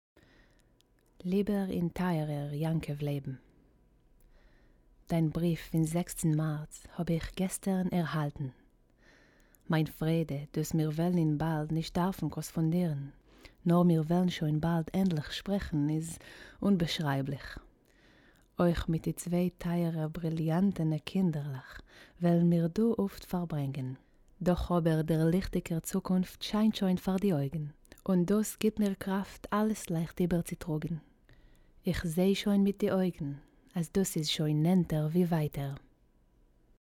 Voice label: yiddish commercial

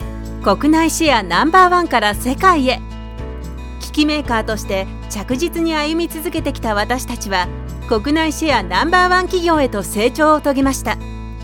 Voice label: japanese corporate videos

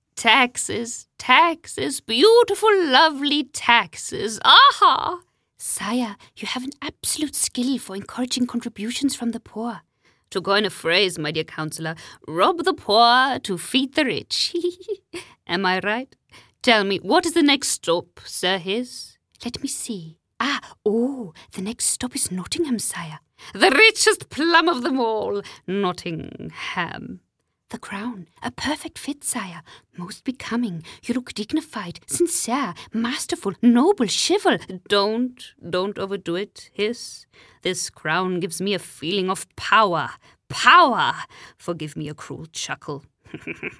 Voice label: english british animation

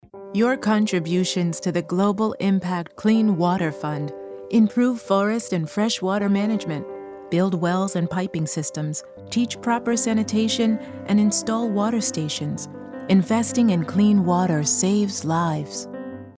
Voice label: english american corporate videos

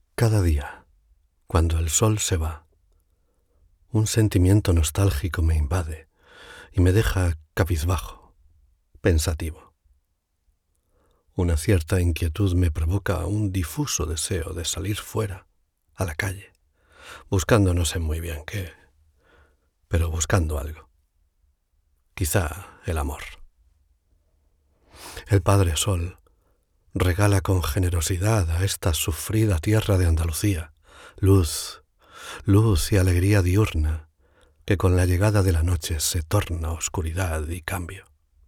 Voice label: spanish audiobooks

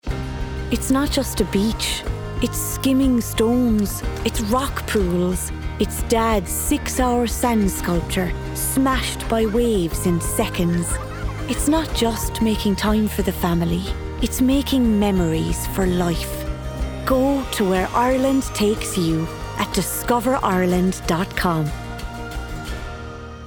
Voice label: english irish

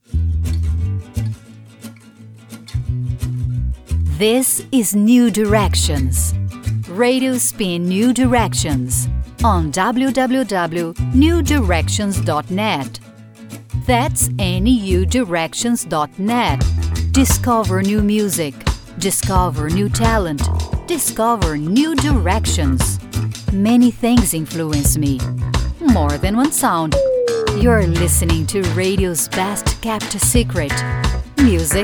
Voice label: English podcasts

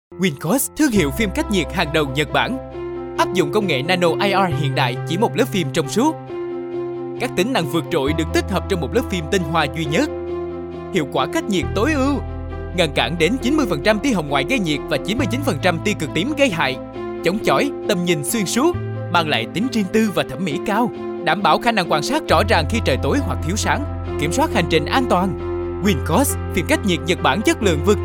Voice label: vietnamese commercial; vietnamesischer männlicher Synchronsprecher

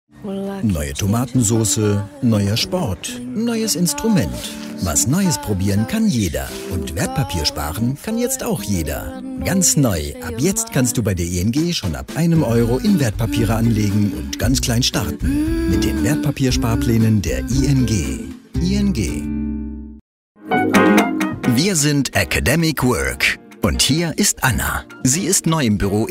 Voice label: german commercial; professioneller zweisprachiger Sprecher

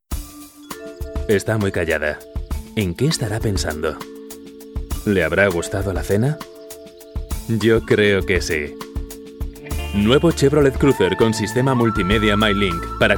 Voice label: spanish castilian commercial

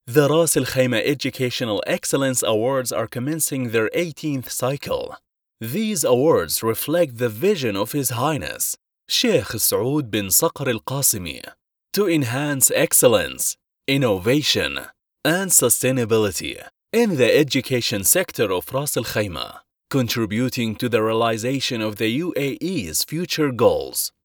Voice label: english narration

